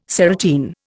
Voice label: "serotine", Indian